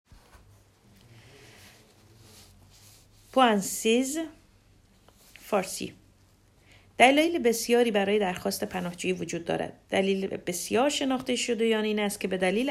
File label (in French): farsi motifs asile